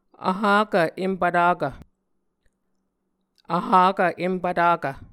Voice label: "vhakv empvtakv", Muscogee

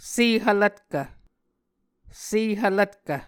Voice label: "sehvlvtkv", Muscogee

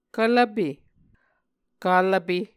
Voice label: "kvlvpe", Muscogee

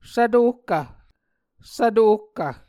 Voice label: "svtohkv", Muscogee

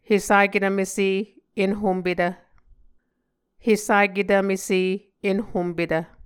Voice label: "Hesaketvmese enhompetv", muscogee

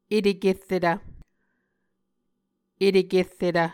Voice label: "etekerretv", muscogee

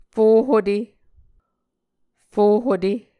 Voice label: "fo-hute", muscogee

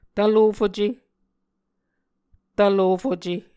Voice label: "tvlofuce", muscogee

